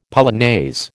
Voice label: "polonaise", American

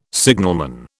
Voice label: "signalman", British

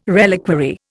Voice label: "reliquary", Indian

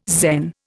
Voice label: "Zen", Indian

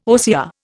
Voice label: "OCR", Indian

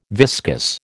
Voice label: "viscous", American

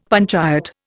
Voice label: "panchayat", Indian; Dictionary

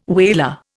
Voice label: "whaler", Indian

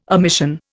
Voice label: "omission", Indian

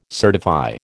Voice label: "certify", American

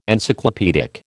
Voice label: "Encyclopaedic", American

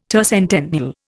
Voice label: "tercentennial", Indian

